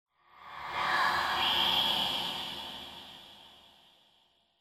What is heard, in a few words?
Whispers Tense; horror and atmosphere fx; Silent Hill Downpour